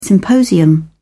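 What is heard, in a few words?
"symposium", British English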